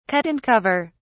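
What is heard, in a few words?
"cut and cover", British English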